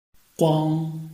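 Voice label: "guang", Chinese, tone 1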